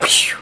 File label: "pschew", voice; ooh ahh